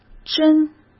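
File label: "针", Mandarin Chinese; chinese flashcards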